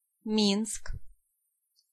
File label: "Minsk", Pronunciation